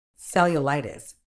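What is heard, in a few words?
"cellulitis", British English; ˌsel.jəˈlaɪ.tɪs; sel-yuh-LIGH-tis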